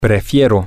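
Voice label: I prefer, Spanish, male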